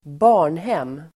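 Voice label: "barnhem", Swedish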